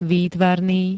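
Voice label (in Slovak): "výtvarný", slovenské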